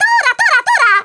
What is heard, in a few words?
KAMIKAZE, Speech, Polish; Worms Armageddon